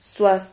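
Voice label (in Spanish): "zuas", Spanish syllable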